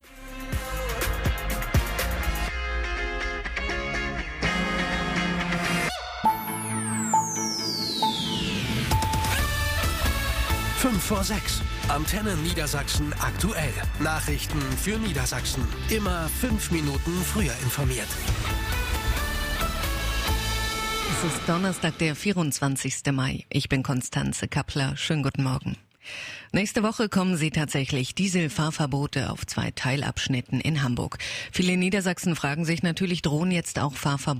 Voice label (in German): News Kollegengespräch; Arbeitsprobe